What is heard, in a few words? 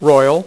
"ROYAL", poker; iPoker 2000